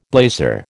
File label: "blazer", American